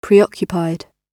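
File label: "preoccupied", British English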